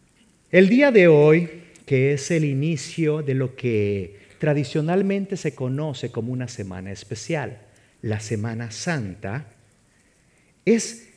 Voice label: SERMON SPA AUDIO

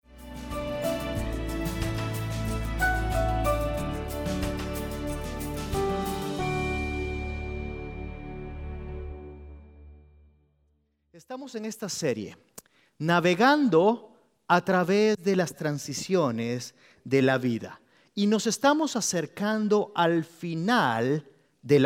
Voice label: Spanish Sermon

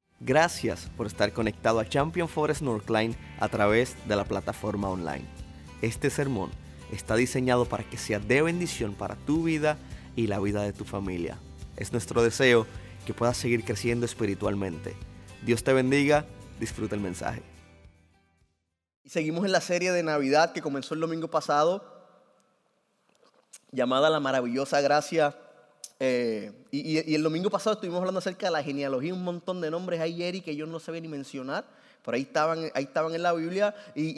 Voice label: Sermon Spanish

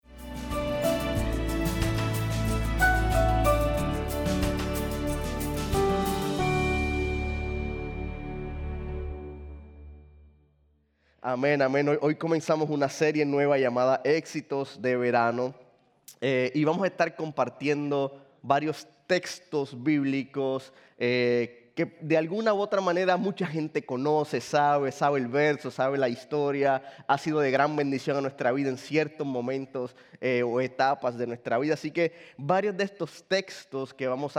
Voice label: SPA SERMON

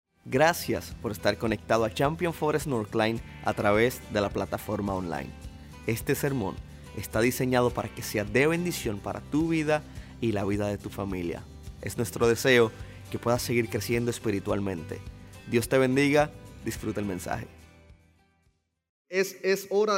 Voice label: Sermon Spanish

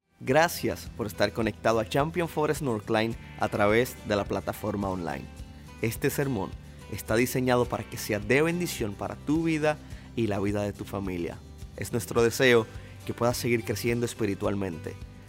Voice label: Sermon Spanish

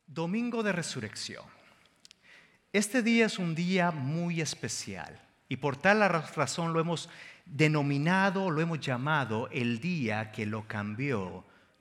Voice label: SERMON SPA

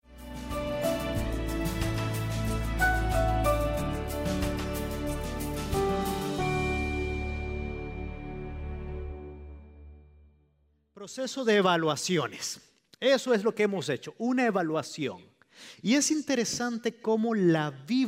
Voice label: Spanish Sermon

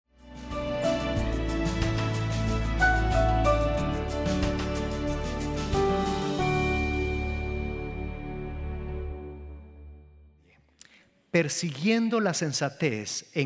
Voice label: SERMON AUDIO SPA

